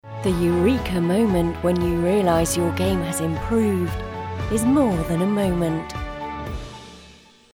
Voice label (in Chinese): 外籍英女, 富有磁性; 顿悟时刻